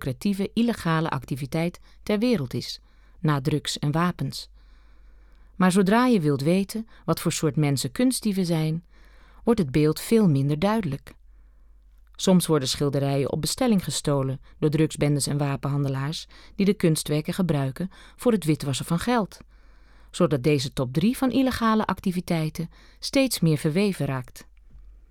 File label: voice over